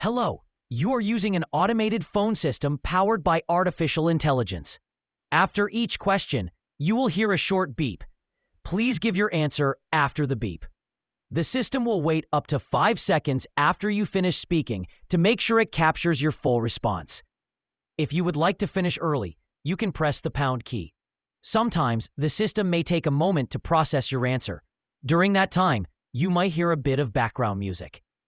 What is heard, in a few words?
welcome to ivr